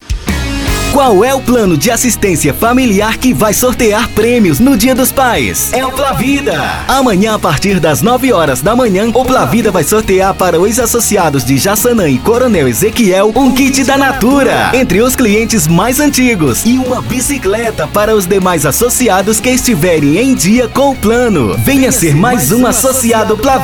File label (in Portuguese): VOZ IMPACTO GRAVE vinheta para plano de assistencia funeral; vinhetas spot chamada